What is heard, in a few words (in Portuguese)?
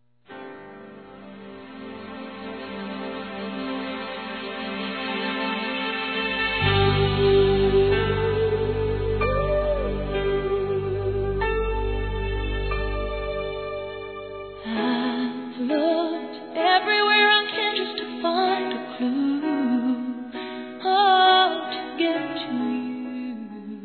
Para Sogro Feminino, Telemensagens, Dia dos Pais; Telemensagens 2017